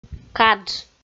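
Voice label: "кадж" Komi, female; kpv audio dict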